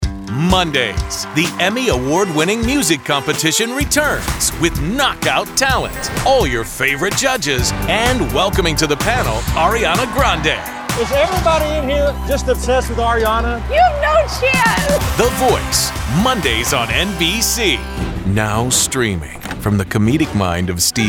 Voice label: NETWORK PROMO